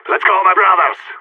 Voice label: radio lets go; Counter Strike; CS:GO; phoenix